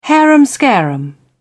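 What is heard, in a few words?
"harum scarum", British English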